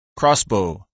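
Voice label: "crossbow", English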